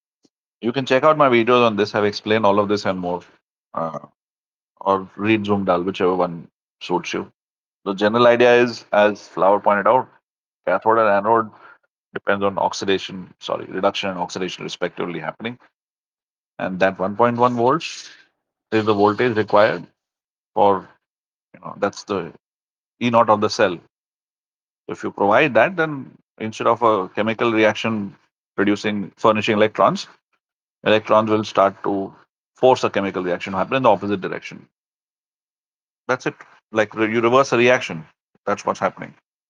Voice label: voice message; electrochem doubt